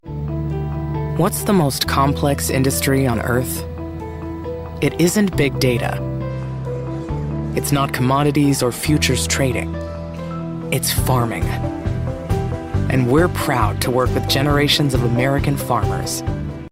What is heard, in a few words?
gravitas powerful confident trustworthy warm rich grounded